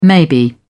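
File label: "maybe", British English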